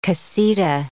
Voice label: "casita", English